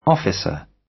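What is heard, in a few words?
"officer", British English; Crime and punishment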